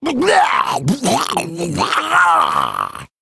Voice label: Male Murloc